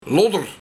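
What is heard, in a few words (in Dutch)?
"Lodder", Luik; Iets slecht en oud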